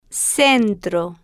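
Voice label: "centro", Spanish, slow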